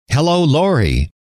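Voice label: "hello", male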